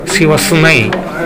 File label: "sewasune", minamiyamagata